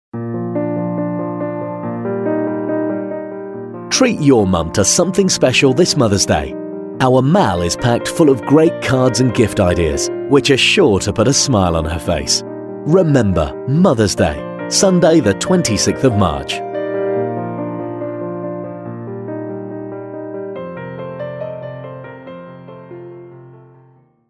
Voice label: mothers day male